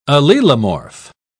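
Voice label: "allelomorph", British English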